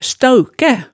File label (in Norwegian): "staaukæ", hemsedalsmaalet, tale